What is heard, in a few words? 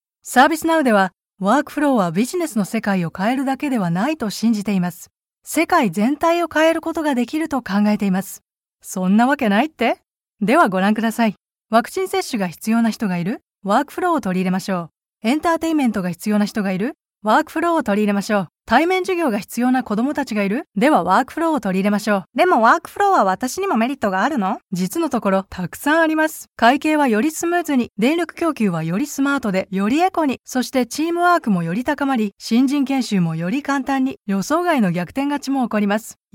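Japanese, Corporate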